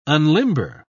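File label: "unlimber", English, British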